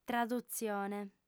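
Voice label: "tradutzione", female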